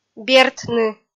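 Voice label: "бертны", Komi-Zyrian, female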